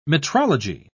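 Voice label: "metrology", English, British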